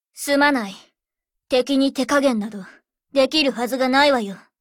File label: warcry